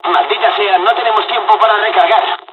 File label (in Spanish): dialog, dialogs spanish; midway; War Thunder